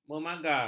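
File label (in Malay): "memagar", Malay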